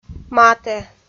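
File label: "матӧ", Komi, female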